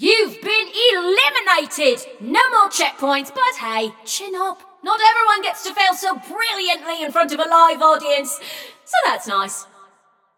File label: Scrap Run Inform Runner Eliminated, Announcer; Flux